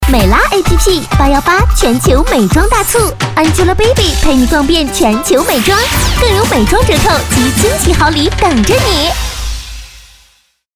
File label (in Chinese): 广告 互联网 欢快, 女国; 美啦app